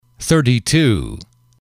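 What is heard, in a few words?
"thirty two", English, male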